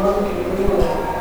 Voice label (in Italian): un entit sotto voce; operatori; Montagnana